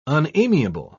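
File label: "unamiable", British English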